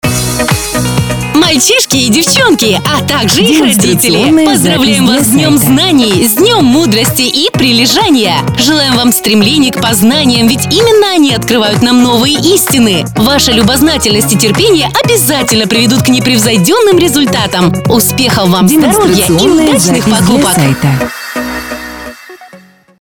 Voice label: Поздравление с 1 сентября женский

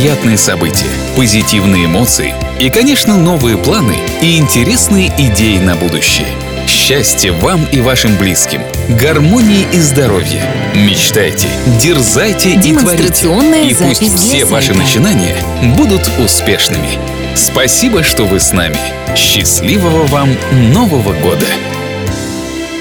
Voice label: Поздравление С Новым Годом мужской; универсальное

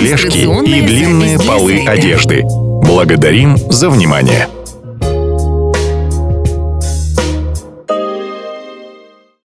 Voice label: Пользование эскалатором, мужской

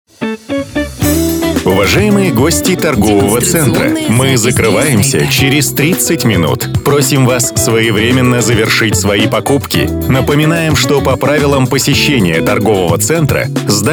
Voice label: Ролик закрытия торгового центра мужской

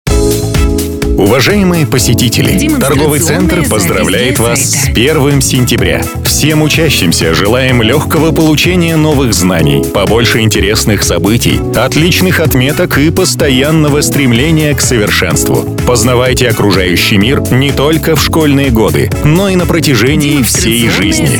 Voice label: Поздравление с 1 сентября, мужской

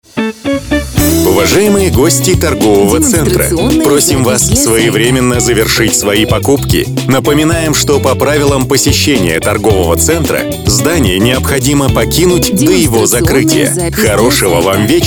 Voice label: Ролик закрытия торгового центра без времени мужской

